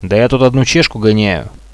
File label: attacking, Russian; bot, radio